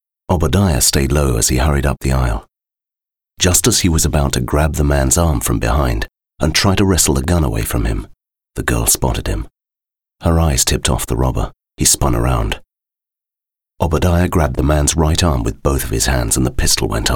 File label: audiobook narration